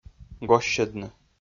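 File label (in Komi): "госсьӧдны", Komi, male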